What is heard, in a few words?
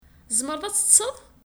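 Kabyle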